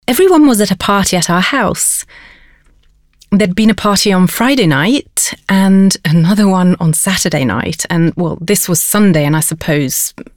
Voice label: ENGLISH